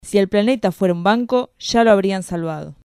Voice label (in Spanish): Discurso